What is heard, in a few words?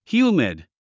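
"humid", English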